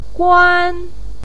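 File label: "guan1", Mandarin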